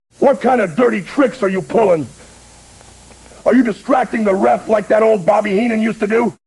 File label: smasher upset; speech